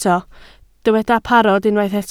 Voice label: "parod", Welsh

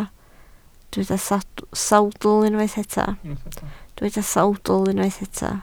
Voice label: "sawdl", Welsh

